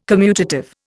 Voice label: "commutative", Indian